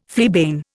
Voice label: "fleabane", Indian

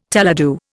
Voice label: "teledu", Indian

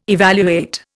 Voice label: "evaluate", Indian

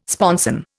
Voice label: "sponson", Indian

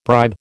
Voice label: "bribe", American

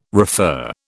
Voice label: "refer", British

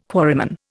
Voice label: "quarryman", Indian

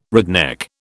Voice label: "redneck", British

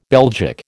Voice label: "Belgic", American

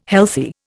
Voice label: "healthy", Indian

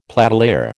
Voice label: "platelayer", American

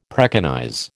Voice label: "preconize", American

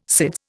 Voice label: "SIDS", Indian

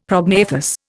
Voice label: "prognathous", Indian; Dictionary

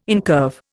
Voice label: "incurve", Indian

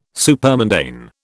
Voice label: "supermundane", British

